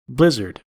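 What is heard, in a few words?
"blizzard", English, American accent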